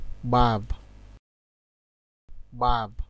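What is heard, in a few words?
"gbab", Nigerian Pidgin, male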